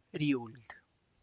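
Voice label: tlacochahuaya, Zapotec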